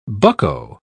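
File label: "bucko", English, British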